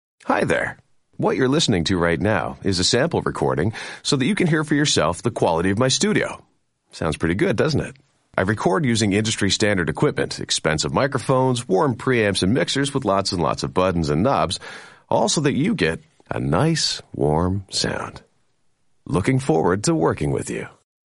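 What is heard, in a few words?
English Canadian M Studio Sample; accent of canada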